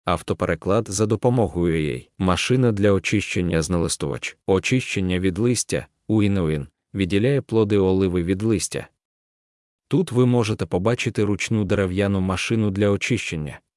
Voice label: ukrainian